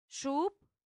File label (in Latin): "sub", Latin; santillana